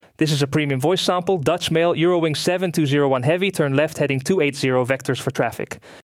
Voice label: Dutch